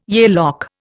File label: "Yale lock", Indian